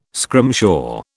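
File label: "scrimshaw", British English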